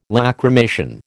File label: "Lacrymation", American